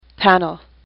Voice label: "panel", English; AWL

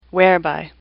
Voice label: "whereby", English; AWL

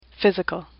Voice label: "physical", English; AWL

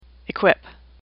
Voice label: "equip", English; AWL

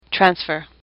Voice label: "transfer", English; AWL

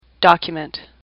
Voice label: "document", English; AWL

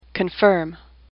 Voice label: "confirm", English; AWL

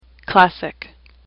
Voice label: "classic", English; AWL

